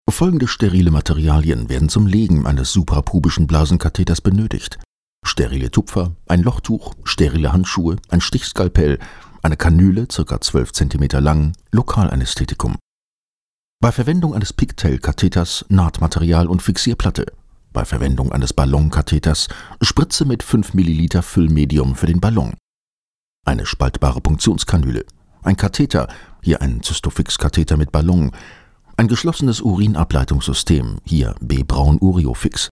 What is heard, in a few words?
e learning ger; sprecher